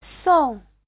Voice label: "song4", Chinese